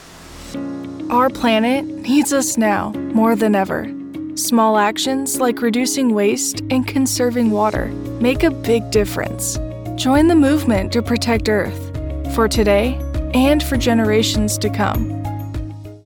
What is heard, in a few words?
Enviromental PSA